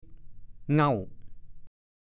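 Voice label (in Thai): "เง่า" ภาษาโคราช; หน้างอ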